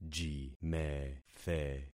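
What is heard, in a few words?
"jimethe", concatenated syllables; Affixing experiment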